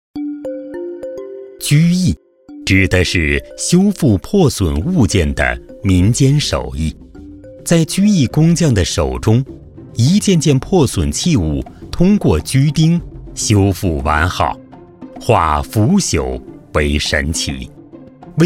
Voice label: 男, 纪录片, 锔艺, 非物质文化遗产

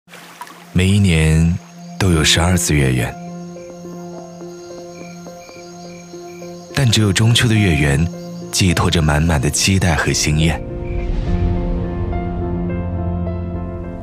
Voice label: 男 磁性温暖 威士忌; 苏格登威士忌

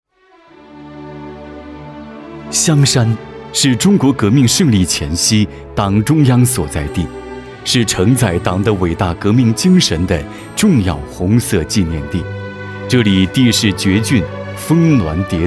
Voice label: 男 专题纪录 香山

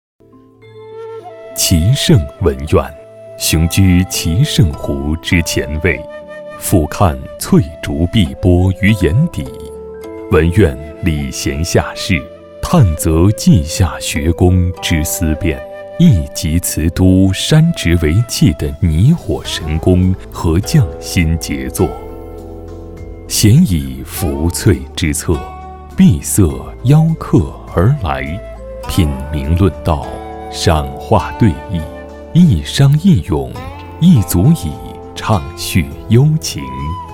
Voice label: 男 纪录片 悠然韵味

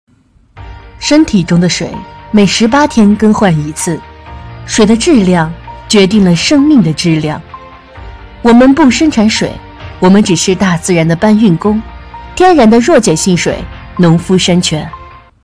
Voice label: 女 矿泉水广告

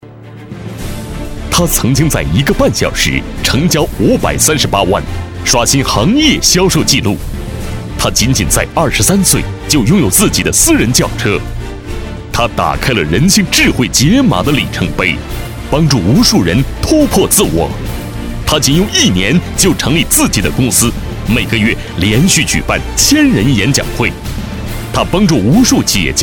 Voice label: 男 培训类大气 介绍

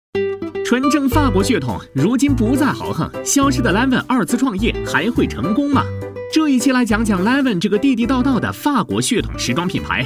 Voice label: 男-轻松解说-时装品牌

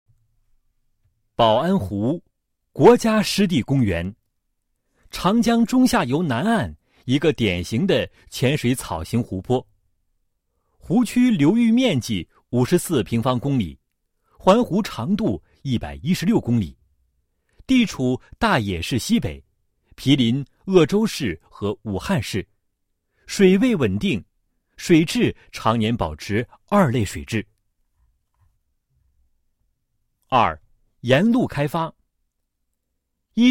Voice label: 男 专题片 保安湖国家湿地公园

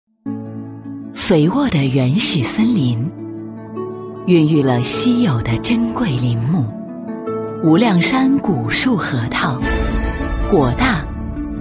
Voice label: 女 广告 公益广告; 云南精准扶贫

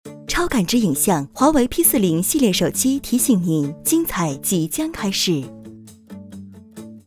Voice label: 女 品牌广告; 华为P40

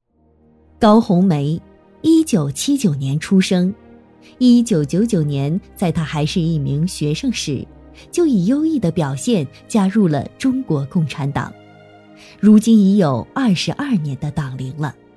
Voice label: 女 专题 大气人物介绍专题

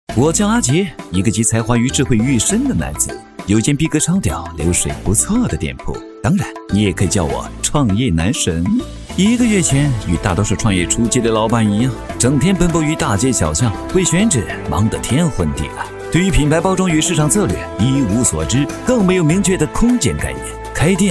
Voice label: 男 飞碟 幽默

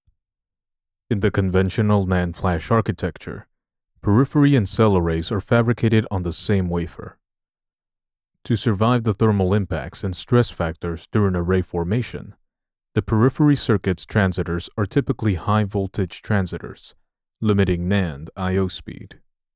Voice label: 外籍英男 干音 深沉 医疗产品

